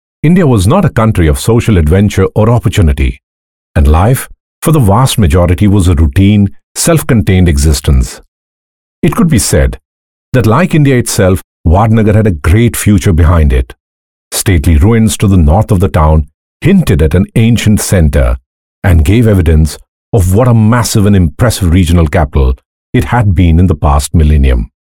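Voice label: voice over sample English, male voice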